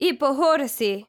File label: civ female retreat, hellenes